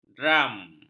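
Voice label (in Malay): "dram", Malay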